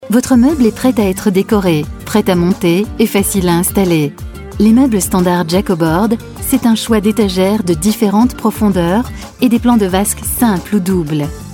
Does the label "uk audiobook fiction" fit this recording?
No